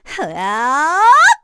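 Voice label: Vox Casting, Korean; Erze; kingsraid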